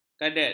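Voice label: "kadet", Malay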